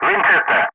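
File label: "winchester", English; Ka-50; Wingman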